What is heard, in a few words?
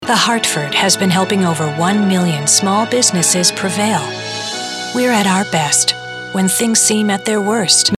Commercial; The Hartford